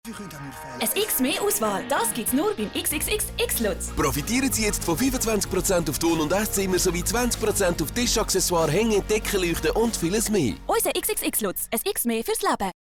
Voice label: german switzerland announcements